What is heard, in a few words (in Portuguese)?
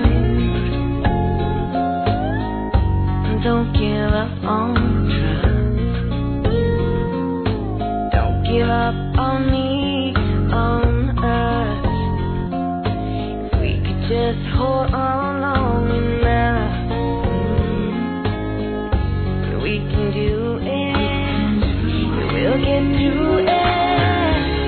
ANIV. FILHA F; Aniversários; Aniversários diversos; fem